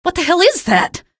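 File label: citizen alert zombie, female; vo; npc; source engine; episodic; episode 1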